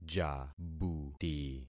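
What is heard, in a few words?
"jabuti", concatenated syllables; Affixing experiment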